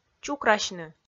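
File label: "чукрасьны", Komi, female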